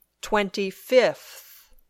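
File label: "twenty fifth", English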